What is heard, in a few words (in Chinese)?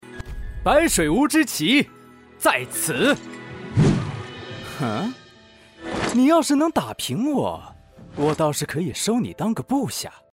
游戏, 年轻帅气男